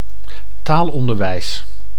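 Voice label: "taalonderwijs", Dutch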